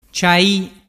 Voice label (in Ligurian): "ciai", dialettu